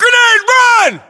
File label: inform incoming grenade, US